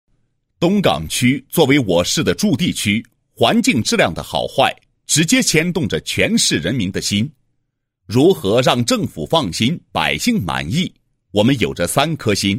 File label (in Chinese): 男 专题片, 环境治理专题; 东港区